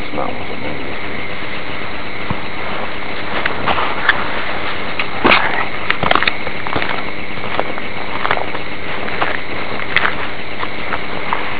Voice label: E.V.P. unknown what is said